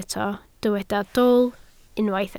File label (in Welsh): "dwl", Welsh